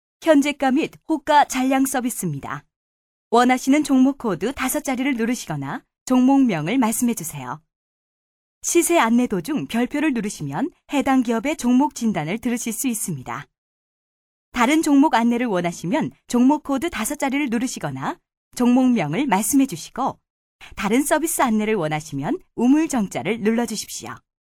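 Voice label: Korean female; ARS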